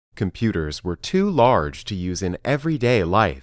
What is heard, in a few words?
Dictation